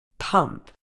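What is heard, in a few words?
"pump", medical English, British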